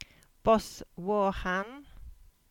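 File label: "Boswarthen", Cornish; place-names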